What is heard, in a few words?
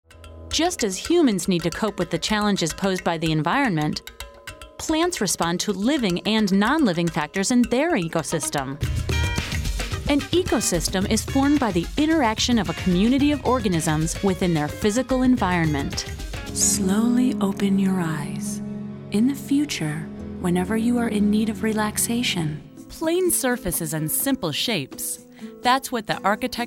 voiceover narration women